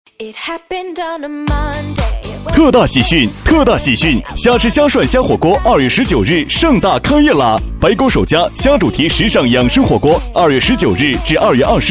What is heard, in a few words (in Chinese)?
男 广告 餐厅开业 喜悦开心